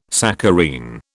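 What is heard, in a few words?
"saccharine", British English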